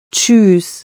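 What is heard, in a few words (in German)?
"tschüss", German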